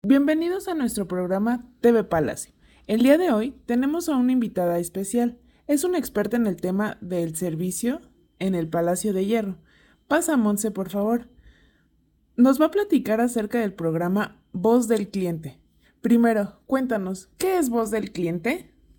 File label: Voice Changer